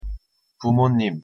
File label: "부모님", Korean; pronunciation